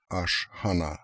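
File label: Ashhana Russian, Beelzebub pronounciations; Fourth Way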